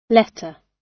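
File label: "letter", British English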